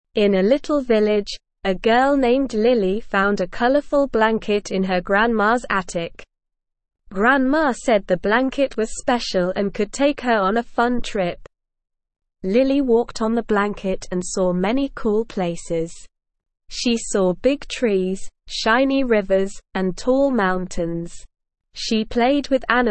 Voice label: ESL Short Stories for Kids Beginner SLOW Reading; The Patchwork Path

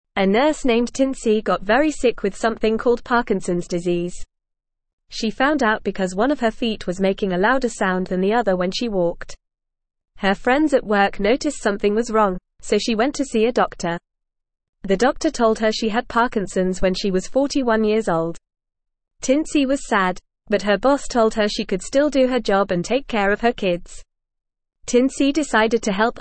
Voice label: English Newsroom Beginner FAST Reading; Tincy the Nurse with Parkinsons Helps Others

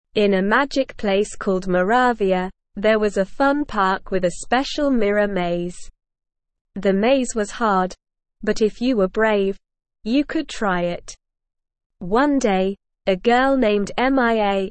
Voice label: ESL Short Stories for Kids Beginner SLOW Reading; The Maze of Mirrors

